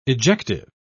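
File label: "ejective", English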